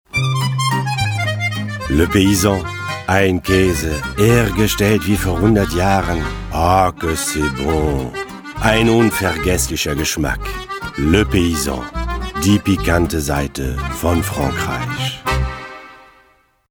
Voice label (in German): Werbung, Variabel